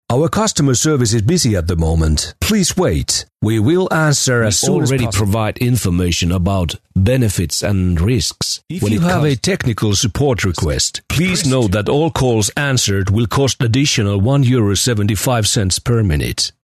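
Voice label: Finnish, male, English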